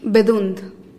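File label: "bedund", humming bird, Teotitlán del Valle Zapotec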